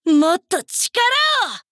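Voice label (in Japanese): 「もっと力を！」, 女の子 オラオラ系少女 戦闘ボイス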